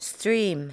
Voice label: "striem", english